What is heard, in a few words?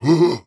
Scare; Guide; Playable Tutorial Level